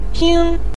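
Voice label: "ping" neutral tone, Mandarin Chinese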